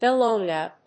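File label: "Bellona", English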